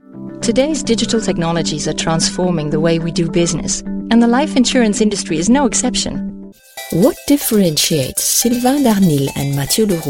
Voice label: ENGLISH Showreel